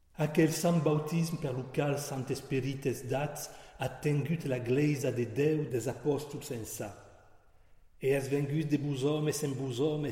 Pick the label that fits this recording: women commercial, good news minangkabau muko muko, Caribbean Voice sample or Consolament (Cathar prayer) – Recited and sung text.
Consolament (Cathar prayer) – Recited and sung text